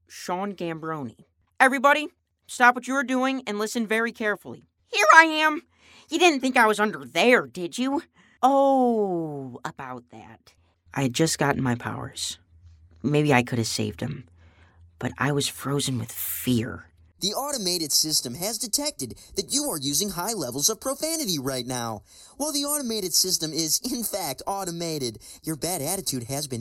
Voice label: Animation